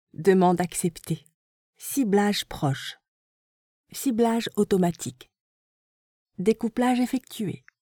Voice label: Elevator Voice Announcer